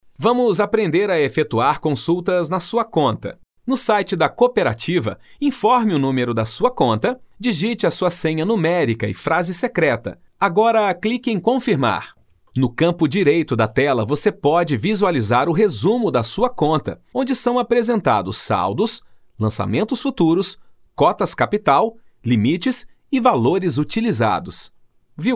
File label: portuguese brazilian e-learning